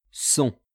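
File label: "son", French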